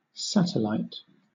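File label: "satellite", British English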